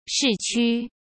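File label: "市区", Mandarin Chinese; chinese-flashcards; hsk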